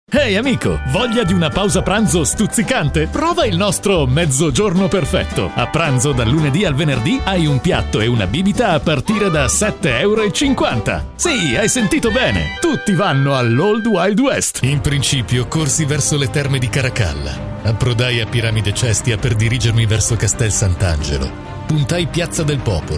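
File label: Italian, male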